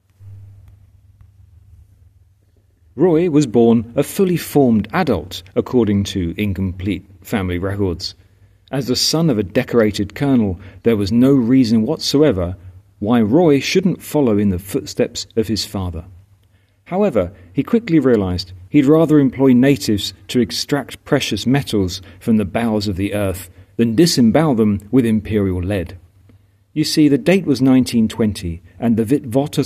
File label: voiceover